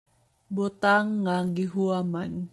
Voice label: Cebuano